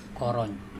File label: "kOrOiN", andamanese; dugong